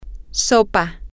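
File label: soup, Spanish, female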